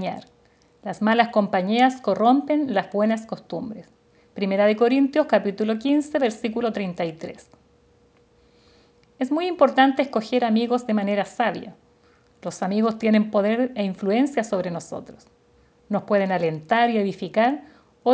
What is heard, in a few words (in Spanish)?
devocional